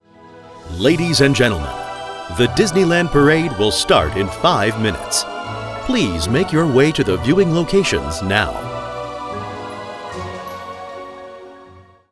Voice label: Park Announcer; Disneyland

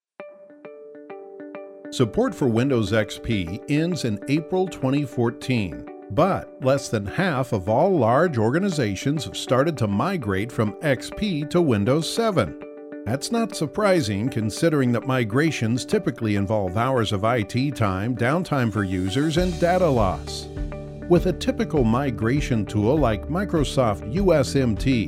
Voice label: conversational instructional

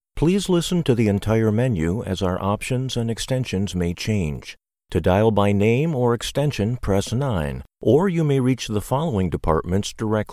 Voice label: IVR examples

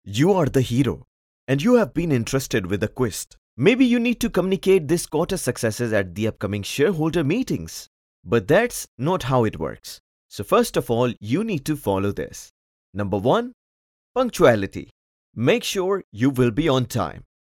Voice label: Explainer Videos

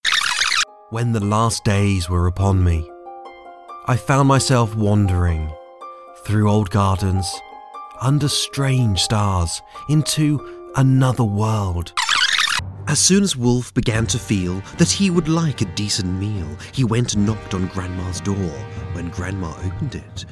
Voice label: Voice Over Examples

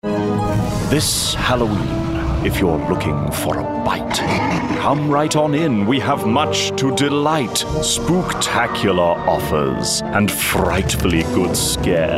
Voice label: Commercial Halloween; Tesco

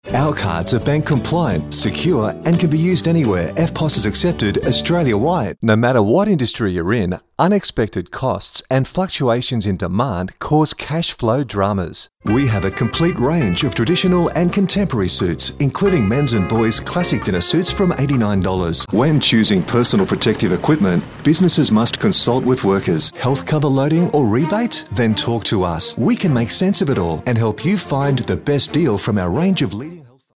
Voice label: Corporate

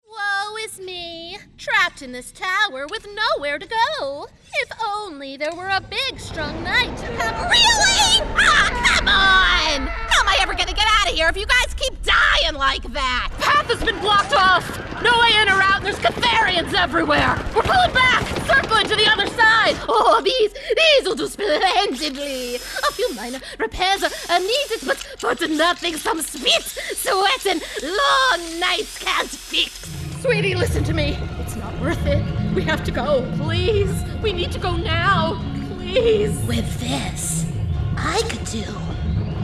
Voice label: Character Gaming

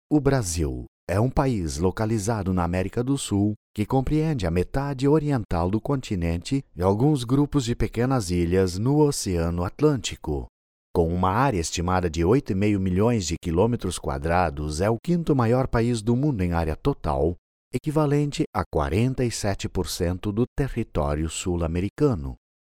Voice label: urban

